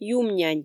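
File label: "юмнянь", Komi, female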